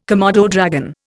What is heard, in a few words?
"komodo dragon", Indian